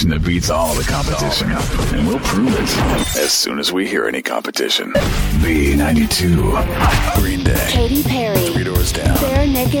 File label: radio imaging rock alt